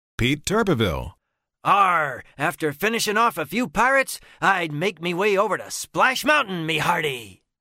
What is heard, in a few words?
pirate